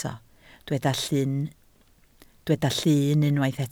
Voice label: "llun", Welsh

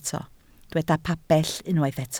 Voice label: "pabell", Welsh

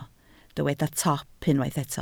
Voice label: "top", welsh

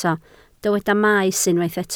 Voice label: "maes", Welsh

